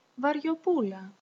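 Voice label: mallet metal desc, Greek; household; tools; tuxpaint-stamps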